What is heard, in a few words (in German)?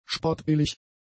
"spottbillig", German; adjectives